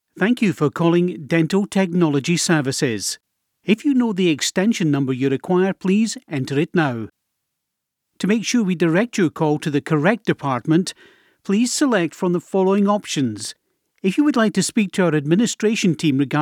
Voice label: ivr, Scottish voice overs

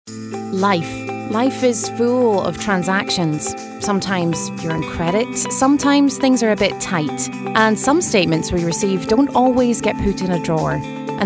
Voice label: corporate, voices

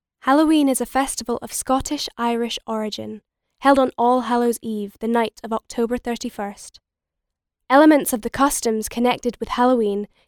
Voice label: Announcer

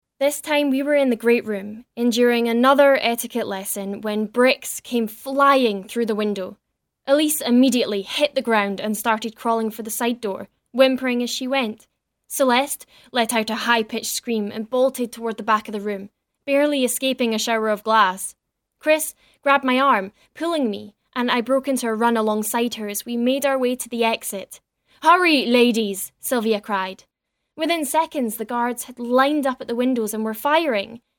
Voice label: narration, Scottish

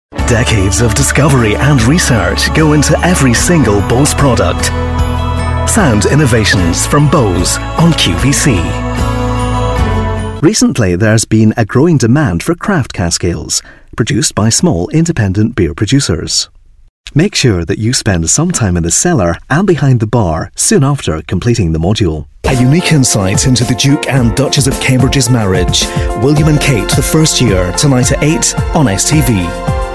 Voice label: Scottish voice overs; Montage

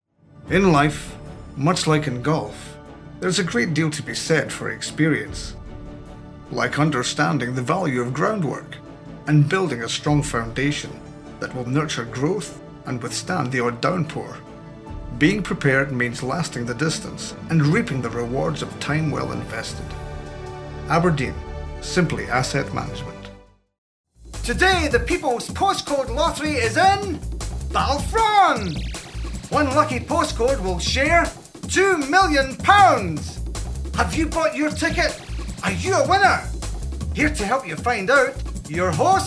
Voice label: Scottish Voice Over, character; Showreel

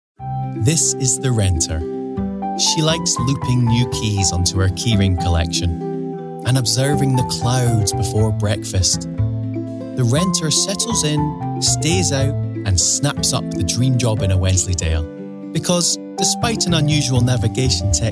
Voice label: Commercial, Scottish voice overs

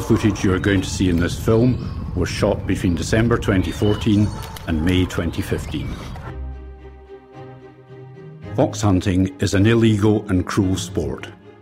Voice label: documentary, Scottish

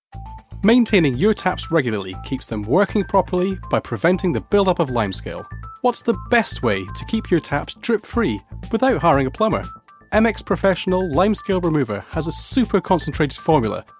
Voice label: Commercial

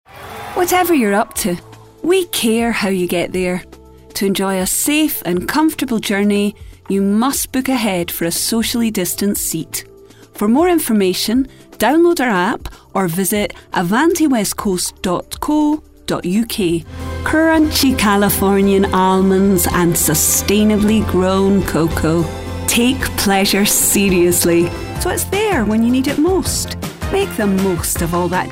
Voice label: Commercial Reel

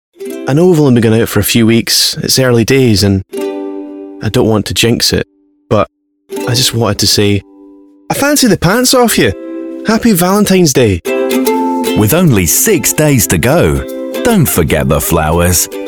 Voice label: Commercial, Scottish voice overs

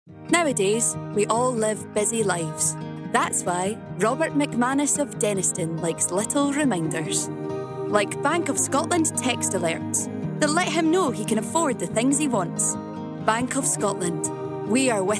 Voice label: commercial

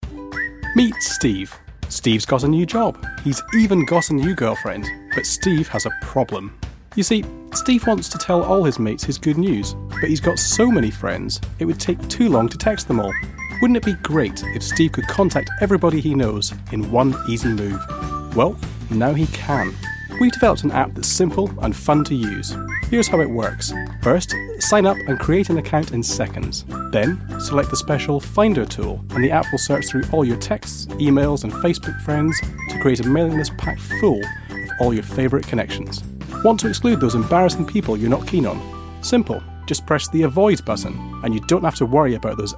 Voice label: Explainer

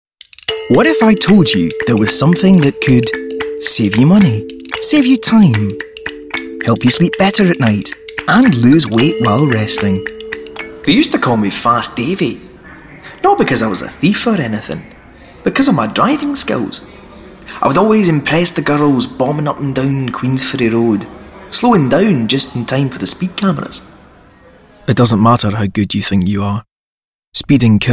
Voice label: Commercial, Scottish voice over

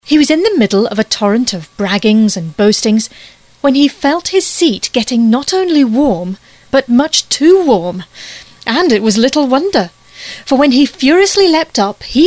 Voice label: Scottish Voice Overs narration

